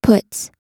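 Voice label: "puts", US English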